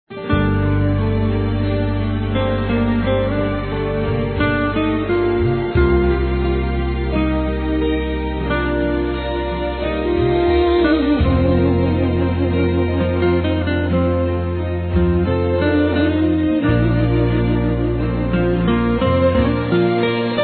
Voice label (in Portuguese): Homenagem Nosso Noivado Masculino; Homenagens